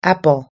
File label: "apple", us english